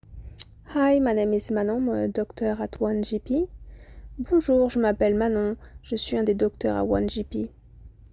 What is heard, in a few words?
Voice note, French-English; French-English Telehealth GP; Doctor